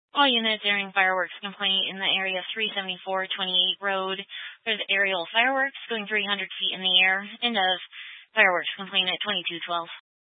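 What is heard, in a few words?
Sheriff Dispatch, Scanner audio recording archive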